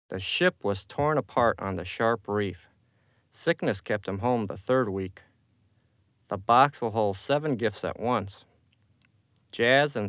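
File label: English, male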